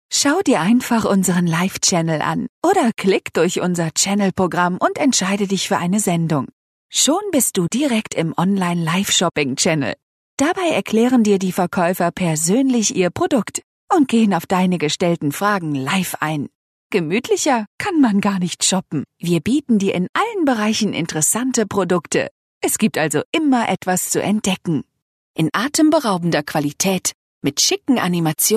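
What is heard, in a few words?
German, female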